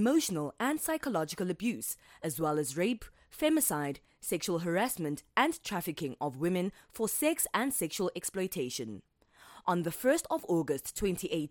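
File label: South African English, General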